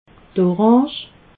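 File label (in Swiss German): "oransch", Alsatian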